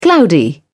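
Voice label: "cloudy", British English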